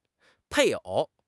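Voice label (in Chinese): "配偶", Chinese; HSK6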